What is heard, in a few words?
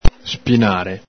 "spinare", Veneto